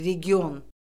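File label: "region", Azerbaijani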